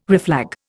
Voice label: "reflag", Indian

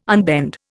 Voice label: "unbend", Indian

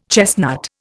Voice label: "chestnut", Indian

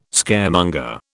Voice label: "Scaremonger", British

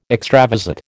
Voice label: "extravasate", American

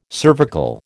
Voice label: "cervical", American

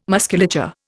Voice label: "musculature", Indian

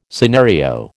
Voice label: "scenario", American

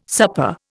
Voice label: "supper", Indian

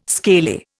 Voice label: "scaly", Indian

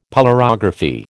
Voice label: "polarography", American

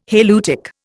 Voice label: "halieutic", Indian

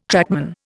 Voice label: "trackman", Indian

